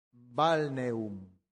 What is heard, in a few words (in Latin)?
"balneum", Latin; santillana